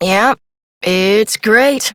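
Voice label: Adult, English; New War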